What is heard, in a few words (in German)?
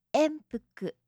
"enpuku", japanische maedchennamen